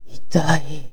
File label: horror "itai"